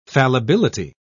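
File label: "fallibility", British English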